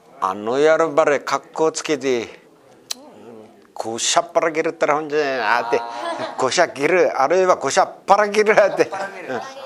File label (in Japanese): goshageru, minami yamagata